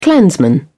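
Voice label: "clansman", British English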